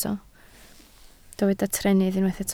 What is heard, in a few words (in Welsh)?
"trennydd", Welsh